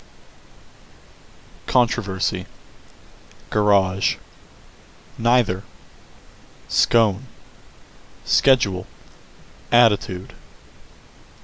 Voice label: male, Canada; monton